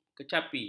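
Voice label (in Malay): "kecapi", Malay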